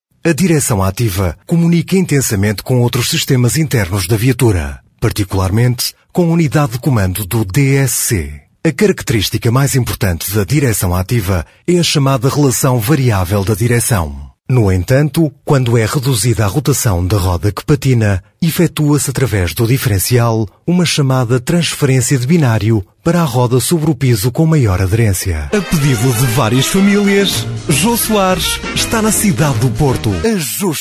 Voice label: Portuguese